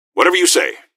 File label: squad affirm, gasmask; scmod